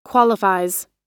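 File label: "qualifies", US English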